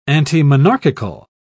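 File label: "antimonarchical", British English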